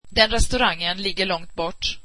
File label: that restaurant is far, swedish